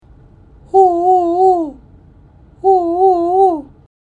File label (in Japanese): 母音「お」ぼやけ